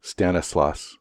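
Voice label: "stanislaus", US English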